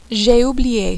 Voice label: j ai oublie, French

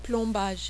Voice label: "plombage", French

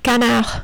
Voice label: "canard", French

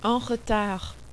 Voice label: en retard, French

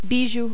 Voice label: "bijoux", French

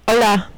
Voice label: "hola", French

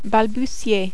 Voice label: "balbutier", French

